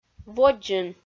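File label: "водзын", Komi, female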